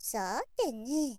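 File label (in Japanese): "saatene", Japanese girl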